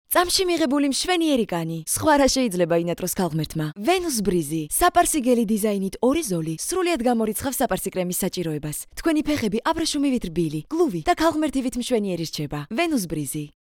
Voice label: Georgian